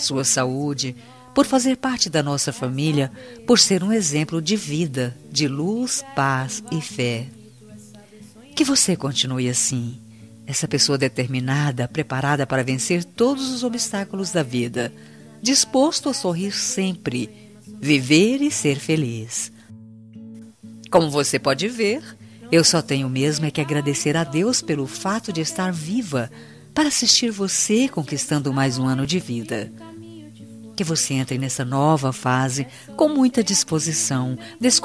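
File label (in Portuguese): birthday NETO female, telemensagem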